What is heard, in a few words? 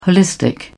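"holistic", British English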